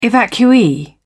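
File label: "evacuee", British English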